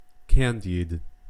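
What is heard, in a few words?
"candied" English, American accent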